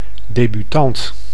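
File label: "debutant", Dutch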